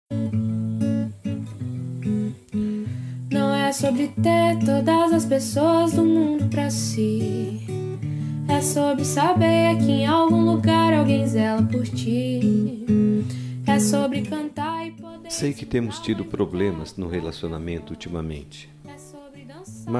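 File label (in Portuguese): FILHO PARA MAE RECONCILIACAO male, telemensagem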